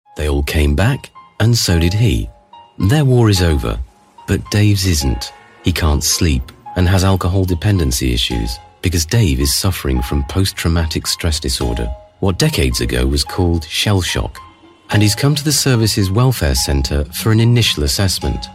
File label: documentary